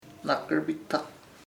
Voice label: young, chamacoco; joven